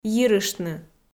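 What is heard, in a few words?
"йирыштны", Komi, female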